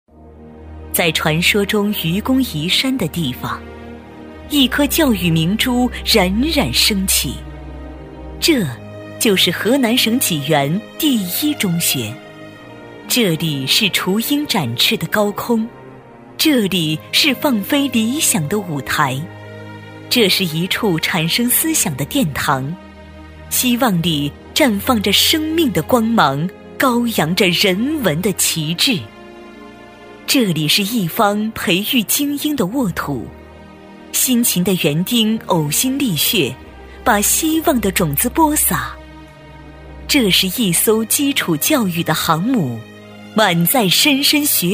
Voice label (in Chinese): 女, 学校宣传片, 浑厚大气; 济源一中; 沉稳大气